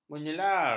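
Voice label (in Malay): "menyelar", Malay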